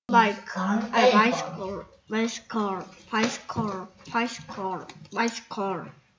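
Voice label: "bicycle", spoken word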